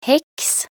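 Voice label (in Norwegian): "heks", Norwegian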